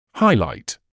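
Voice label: "highlight", British English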